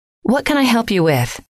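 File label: what can I help you with, female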